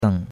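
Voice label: "deng3", Chinese; Cours-de-Chinois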